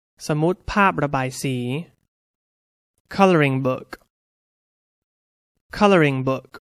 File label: "coloring book", English; Toys